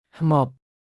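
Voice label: "ហ្មប", Khmer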